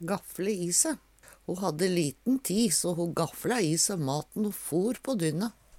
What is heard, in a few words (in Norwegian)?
"gaffle i se", Numedalsmål; Ho hadde liten ti so ho gaffla i se maten o for på dynne.; ete ufint